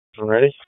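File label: Police Dispatch, p25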